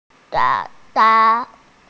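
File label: "yang2 tai2", Mandarin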